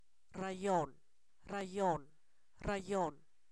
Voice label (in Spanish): Zapoteco, san miguel albarradas; numeros